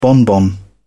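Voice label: "bonbon", British English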